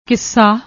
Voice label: "kissa", Punjabi; ਕਿੱਸਾ